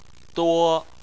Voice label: "duo1", Chinese

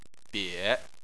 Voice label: "bie3", chinese

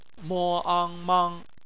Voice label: "mang", Chinese; BPMF spelling; phonetics

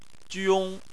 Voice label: "jiong1", Chinese

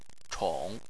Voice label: "chong3", chinese

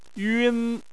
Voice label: "yun1", Chinese

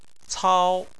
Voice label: "cao1", chinese

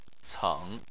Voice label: "ceng3", chinese

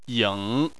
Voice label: "ying3", Chinese

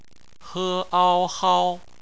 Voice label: "hao", Chinese; phonetics; bpmf

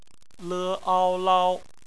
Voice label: "lao", Chinese; BPMF spelling; phonetics

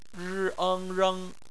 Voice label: "reng", Chinese phonetics

